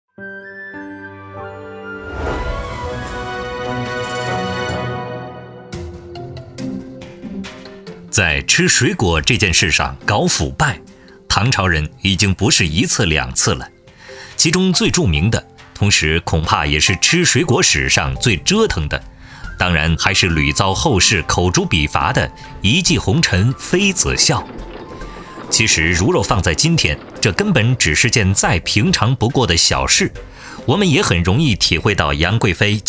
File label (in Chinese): 解说; 舌尖中国唐